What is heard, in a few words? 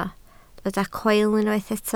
"coel", Welsh